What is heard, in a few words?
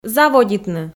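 "заводитны", Komi, female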